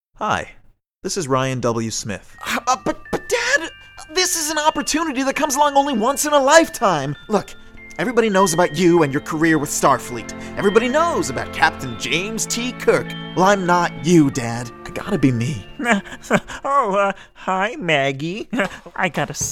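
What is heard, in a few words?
animation voiceovers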